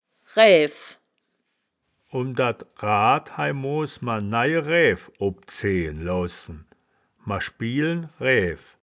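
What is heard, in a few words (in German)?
"raeaef", wittlicher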